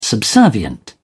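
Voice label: "subservient", British English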